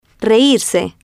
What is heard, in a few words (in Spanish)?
"reírse", Spanish